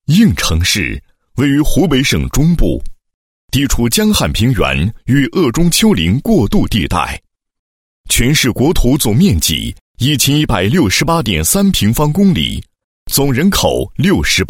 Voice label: 男 专题 地质灾害防治 建设汇报; 国地质灾害防治高标准“十有县”建设汇报

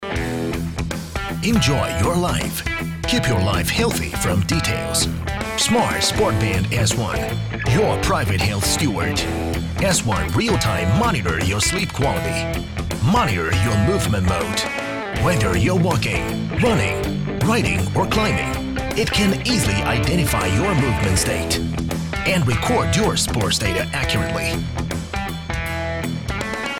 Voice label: 英男, 英文专题, 时尚动感

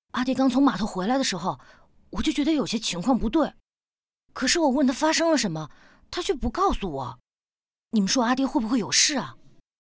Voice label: 游戏 剧情类男童

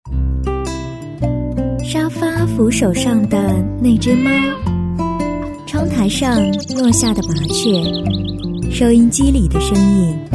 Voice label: 片花配音样音 温暖

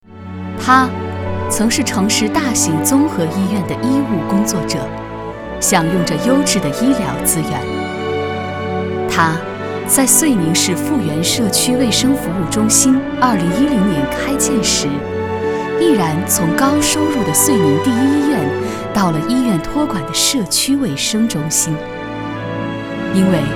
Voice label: 女 专题 人物专题

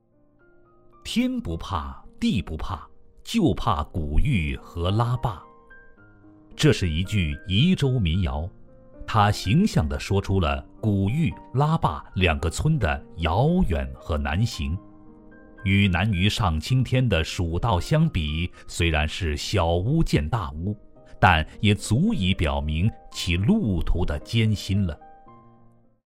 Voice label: 男 历史文化 温婉大气

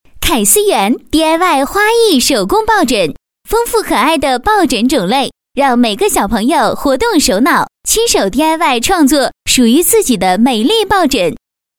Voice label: 女, 广告; 台湾美食嘉年华

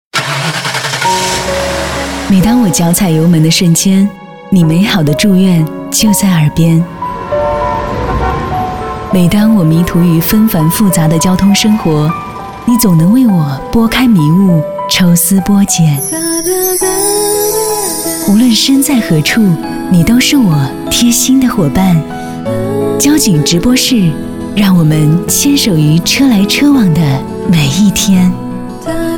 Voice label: 女 电台宣传 温情醇厚

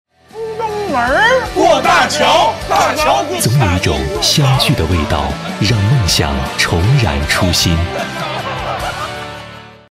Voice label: 男,温情; 双汇

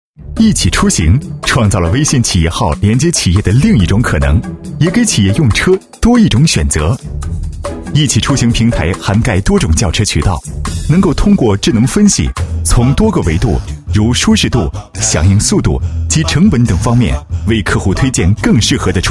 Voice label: 男 时尚活力; 一起出行

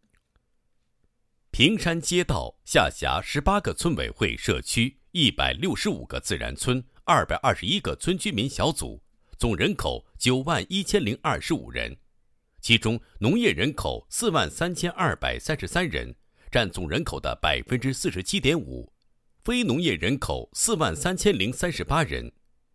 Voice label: 男, 政府工作; 屏山街道脱贫摘帽工作配音

